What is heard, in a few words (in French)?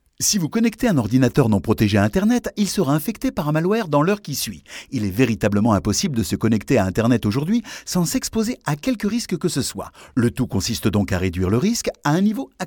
voix homme